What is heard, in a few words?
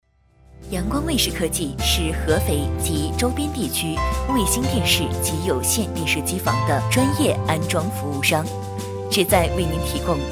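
Mandarin Chinese, female, voice over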